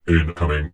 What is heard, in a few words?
cheavy incoming, vox; Dystopia; vox new